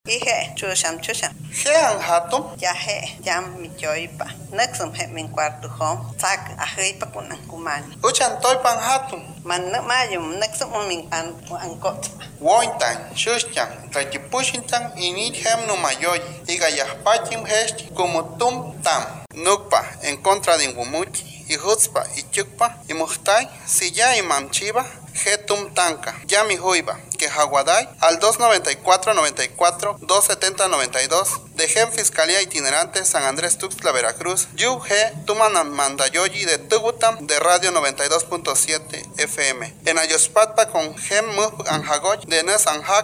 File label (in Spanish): Lengua Popoluca; San Andres; Derechos niñas y niños